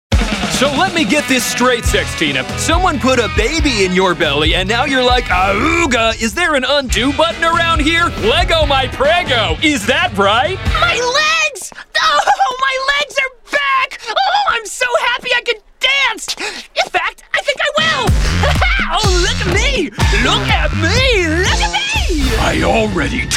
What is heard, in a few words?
animation men, voices men